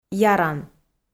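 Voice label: "яран", Komi-Zyrian, female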